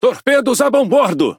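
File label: Brazilian Portuguese Speech Alarms Torpedo Left; World Of Warships; PT BR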